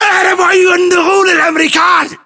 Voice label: inform attack grenade, battle chatter, english